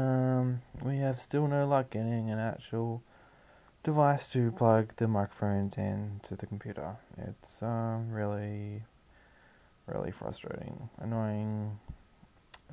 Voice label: mobile rant